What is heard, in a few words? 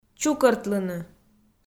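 "чукӧртлыны", Komi, female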